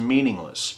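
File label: "meaningless", American English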